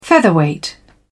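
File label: "featherweight", British English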